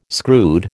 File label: "screwed", American